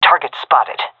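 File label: sees enemy, female; vo; Counter Strike Model Pack; CS:GO; seal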